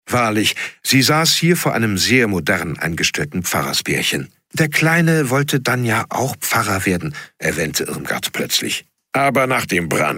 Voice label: Hoerbuch Krimi Hoerbuch mit diversen Charaktere

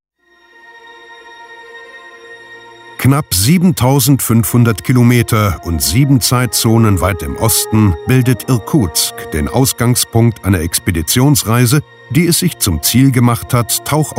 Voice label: Doku Baikalsee; deutscher Werbesprecher

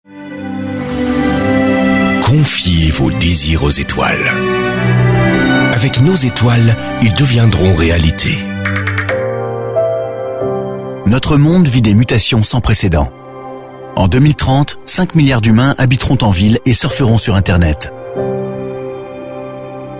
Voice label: Imagefilm FRA M; werbestimme

